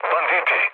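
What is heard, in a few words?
Serbian enemy detected mood low; War Thunder; speech srb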